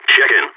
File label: request report, balkan; vo